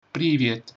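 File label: hello, Russian; Привет; Helô / Shwmae (anffurfiol)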